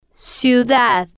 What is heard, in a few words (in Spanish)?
"ciu-dad", Spanish